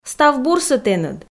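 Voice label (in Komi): "став бурсӧ тэныд", Komi, female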